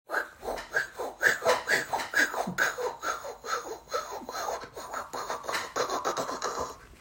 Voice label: sawing noise highway speed voice imitation sawing sound jeep xj